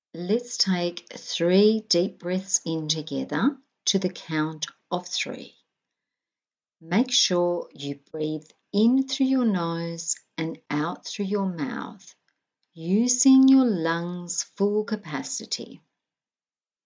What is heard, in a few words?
Controlled Breathing Voice only